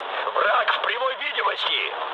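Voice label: Russian enemy detected mood high, speech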